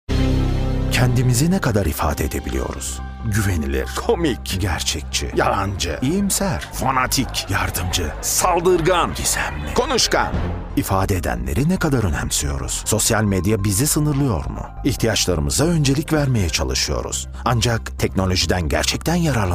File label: turkish voiceover narration